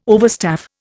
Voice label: "overstaff", Indian